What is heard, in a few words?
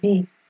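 "be", Nigerian Pidgin, female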